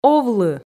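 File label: "овлы", Komi, female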